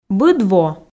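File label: "быд во", Komi, female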